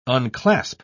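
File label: "unclasp", British English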